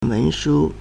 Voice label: "wen2shu1", Chinese